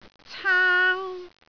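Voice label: "caang1", Cantonese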